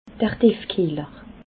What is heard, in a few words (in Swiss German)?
"tiefkieller", alsace